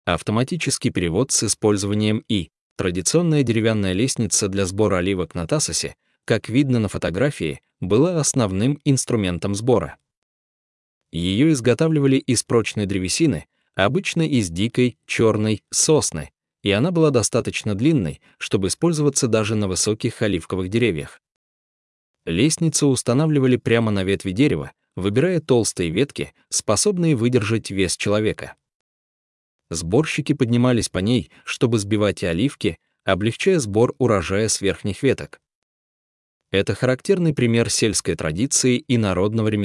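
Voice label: russian, Audio guided tour; Σκάλα; Эта лестница отражает сельскохозяйственные традиции и ремесленное мастерство острова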